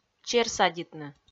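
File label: "чер садитны", Komi, female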